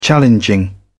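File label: "challenging", British English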